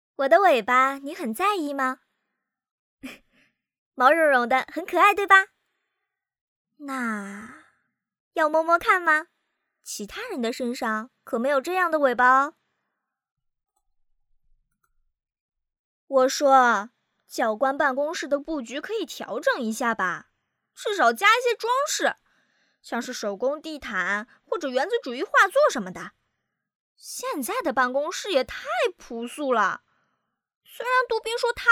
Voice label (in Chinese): 少女声线展示